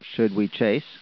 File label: "should", speech; digital speech processing course